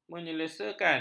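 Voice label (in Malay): "menyelesakan", Malay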